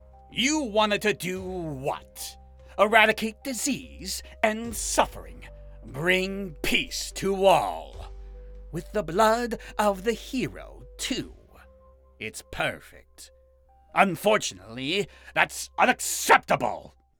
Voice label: Anime villain

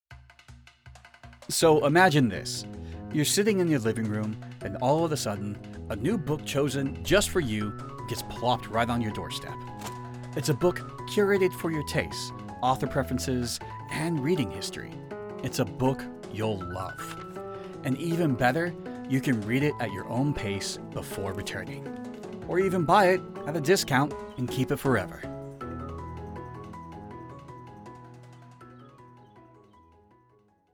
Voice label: Smart, Casual, Friendly, Conversational

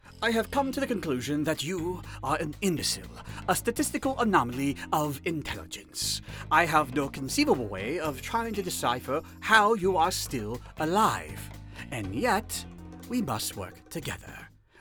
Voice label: video game samples, comedy

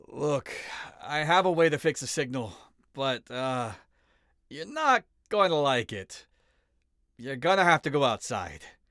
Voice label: Video Game Sample side character

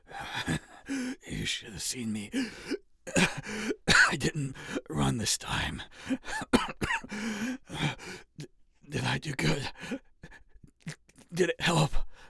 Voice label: Video Game Sample dying character, Dramatic

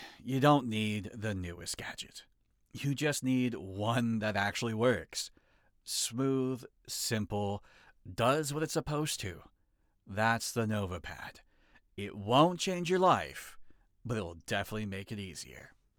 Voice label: Commercial sample Conversational, Voice Actor

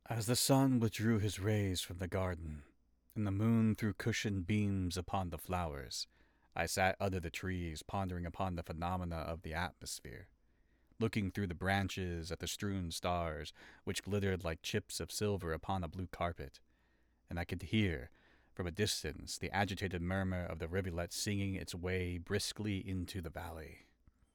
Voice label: Poetry Sample

